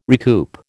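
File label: "recoup", American English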